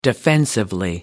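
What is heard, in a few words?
"defensively", British English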